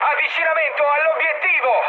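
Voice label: Italian near waypoint mood high, speech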